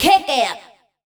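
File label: vocal female, Dance Vocals, spoken words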